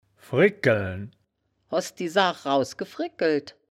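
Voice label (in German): "frigkeln", wittlicher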